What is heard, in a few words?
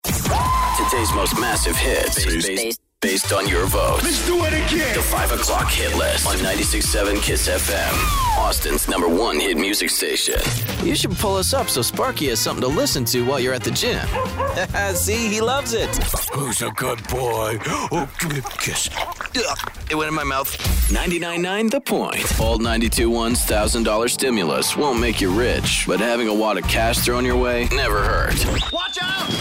Imaging